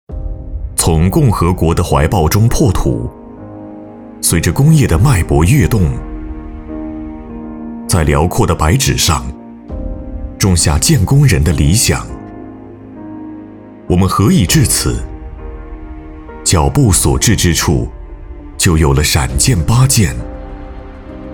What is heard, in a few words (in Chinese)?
男 专题宣传 沉稳大气; 建工集团